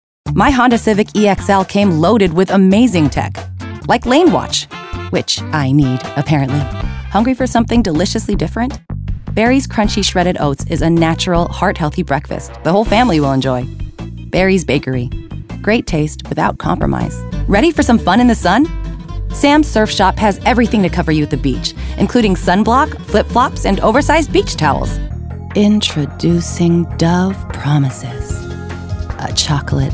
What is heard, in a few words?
VO commercial